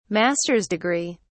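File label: "master's degree", British English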